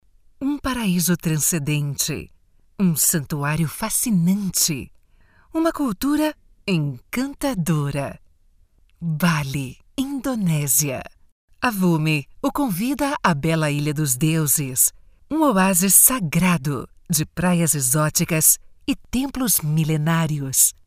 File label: locutora brasil brazilian voiceover documentary